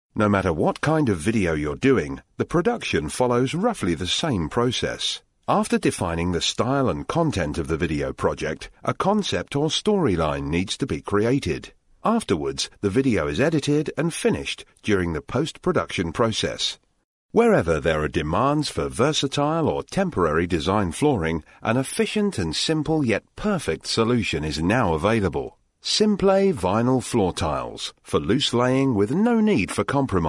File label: English UK, M, eLearning; elearning voice over